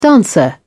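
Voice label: "dancer", British English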